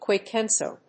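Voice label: "quick answer", English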